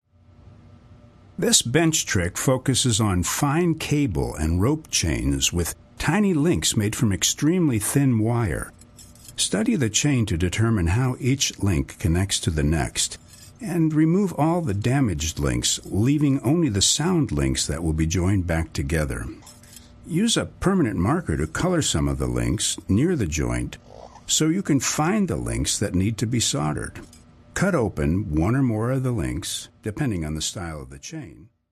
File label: Instructional, voice actor